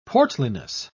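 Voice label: "portliness", British English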